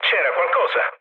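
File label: Italian trick mood low, speech; War Thunder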